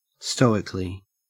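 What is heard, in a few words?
"stoically", Australian English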